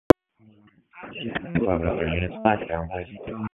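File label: Police Dispatch; Wake Forest; p25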